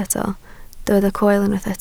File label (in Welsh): "coel", Welsh